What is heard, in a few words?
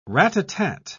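"rat-a-tat", British English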